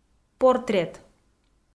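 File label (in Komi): "портрет" Komi, female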